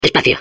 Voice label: Space core babble, es; Espacio.